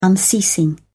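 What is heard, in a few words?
"unceasing", British English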